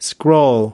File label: "scroll", us